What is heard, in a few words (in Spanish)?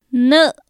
nɨ agua, Popoloca; INALI